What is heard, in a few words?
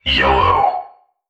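"yellow", synthesized voice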